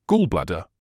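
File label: "gallbladder", British English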